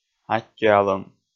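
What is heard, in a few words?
"аттьӧалӧм", Komi, male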